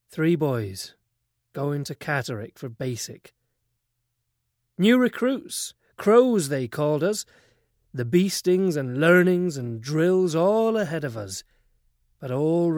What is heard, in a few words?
West Country